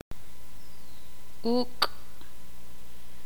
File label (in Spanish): "cuerno", ixil